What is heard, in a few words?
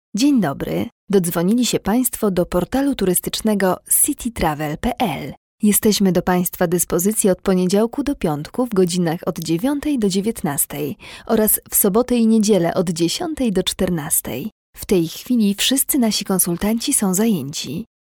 female polish voice over upbeat